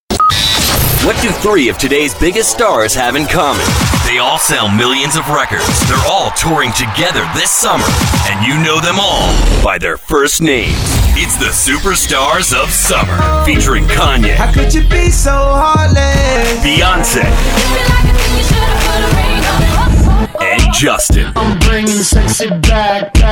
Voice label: radio hot ac, radio imaging